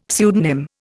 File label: "pseudonym", Indian; Dictionary